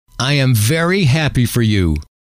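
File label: i am very happy for you, male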